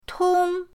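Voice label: "tong", Mandarin pinyin, first tone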